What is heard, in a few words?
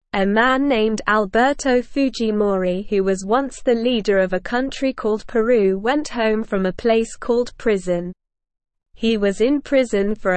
English Newsroom Beginner SLOW Reading; Alberto Fujimori the leader of Peru is free